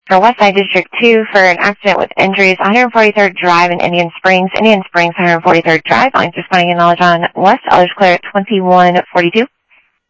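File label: Sheriff East County, scanner audio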